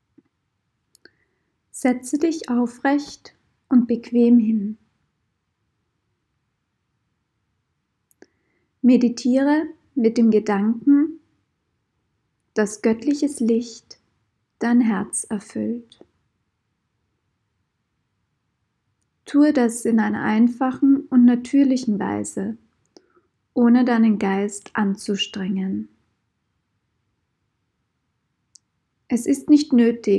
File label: Meditation